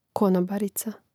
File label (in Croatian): "konobarica", Hrvatski; Hrvatski mrežni rječnik; Mrežnik